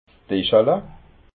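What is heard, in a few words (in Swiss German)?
"dischala", Alsatian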